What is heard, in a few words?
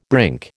"brink", American